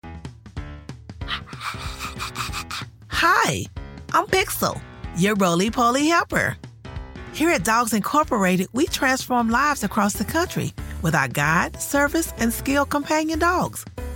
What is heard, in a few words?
tutorial